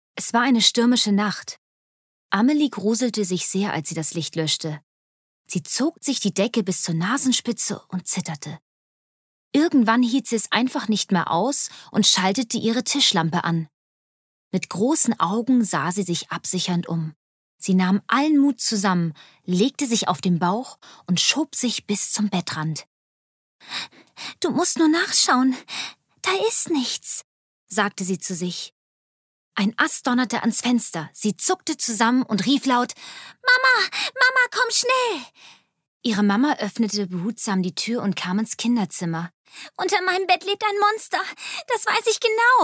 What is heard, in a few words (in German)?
Kinderbuch Erzählung